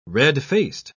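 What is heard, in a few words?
"red-faced", British English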